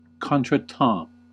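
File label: "contretemps", US English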